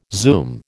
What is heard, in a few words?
"zoom", American